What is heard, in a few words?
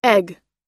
"egg" us; Oxford Learner's Dictionary